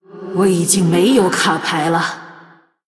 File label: Female Blood Elf No Cards; zh; 牌库空 Out of cards; 虚空之影瓦莉拉; Valeera Deathknight